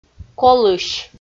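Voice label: "колысь" Komi, female; audio dict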